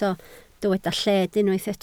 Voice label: "lled", Welsh